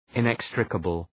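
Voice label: "inextricable", English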